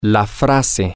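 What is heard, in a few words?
phrase, Spanish, male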